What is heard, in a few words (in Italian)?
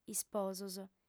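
"isposos", lingua sarda, fem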